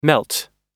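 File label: "melt", English; oxford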